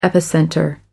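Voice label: "epicenter", British English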